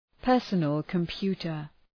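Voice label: "personal computer", English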